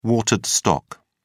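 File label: "watered stock", British English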